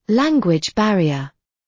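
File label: language barrier, British; Language, linguistics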